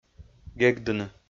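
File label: "гегдыны", Komi, male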